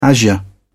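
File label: "azure", British English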